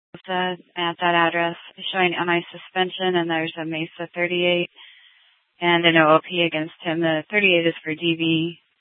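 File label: police dispatch radio, scanner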